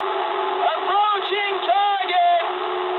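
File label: English near waypoint mood high; War Thunder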